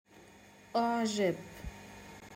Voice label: "u3jeb" arabic pronunciation